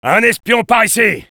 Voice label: cloaked spy, fr; Engineer